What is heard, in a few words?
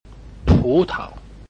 "pu2 tao5", Chinese